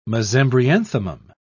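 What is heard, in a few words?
"mesembryanthemum", British English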